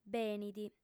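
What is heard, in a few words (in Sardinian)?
"benit", female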